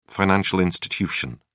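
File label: "financial institution", British English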